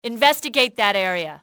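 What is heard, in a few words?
investigate area, english female; XBOX; enemy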